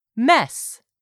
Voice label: "mess", English; 3000 words